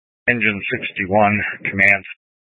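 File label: Incident Tac 2, Scanner audio recording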